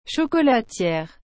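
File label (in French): "chocolatière", French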